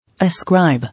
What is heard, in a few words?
"Ascribe", English